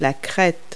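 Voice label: "cockscomb", English word; Multi-Lingual Talking Picture Dictionary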